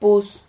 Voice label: "pus", Silabas

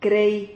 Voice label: "crei", Silabas

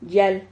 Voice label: "llial", Silabas

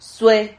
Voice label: "sue", Silabas

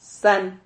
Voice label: "san", Silabas

